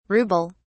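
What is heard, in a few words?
"rubel", British English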